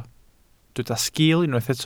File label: "sgiil", Welsh